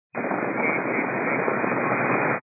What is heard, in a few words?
122 725MHz, scanner radio